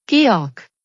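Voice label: "Georg", German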